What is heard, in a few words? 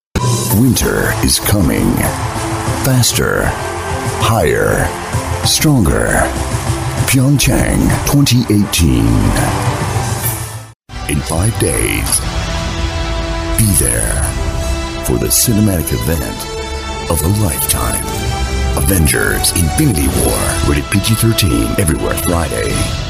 Deep Dramatic Mysterious Booming